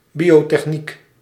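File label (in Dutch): "biotechniek", Dutch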